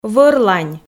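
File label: "вӧрлань", Komi, female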